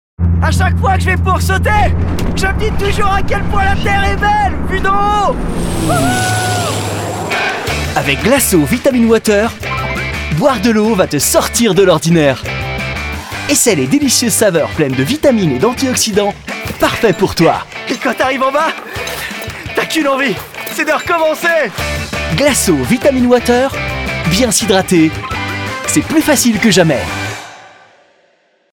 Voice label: french promos